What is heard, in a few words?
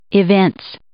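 "evince", English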